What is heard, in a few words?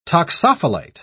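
"toxophilite", British English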